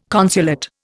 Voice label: "consulate", Indian